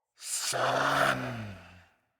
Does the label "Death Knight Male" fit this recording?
Yes